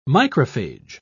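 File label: "microphage", British English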